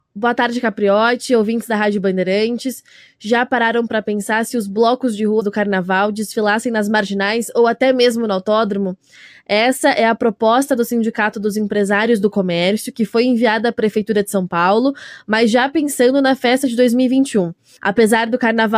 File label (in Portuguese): entrevista radio; sindilojas; carnaval; radio bandeirantes